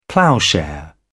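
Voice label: "ploughshare", British English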